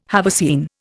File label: "haversine", Indian